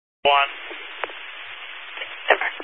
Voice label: Highway Patrol, Phoenix Metro East Area, scanner